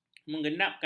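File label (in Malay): "mengenapkan", Malay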